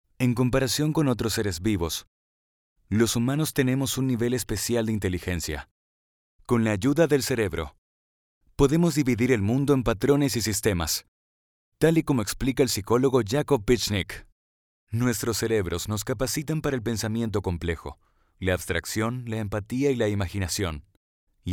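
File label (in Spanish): doblaje, Argentina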